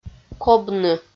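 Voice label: "кобны" Komi, female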